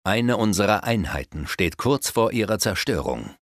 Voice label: Unit Heal, Speech German Advisors; Civ5